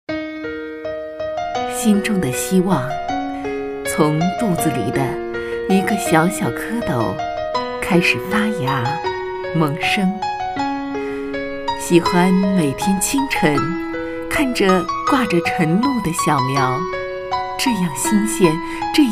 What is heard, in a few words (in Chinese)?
孕妇独白很有爱温馨